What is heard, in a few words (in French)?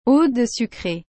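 "eau de sucrée", French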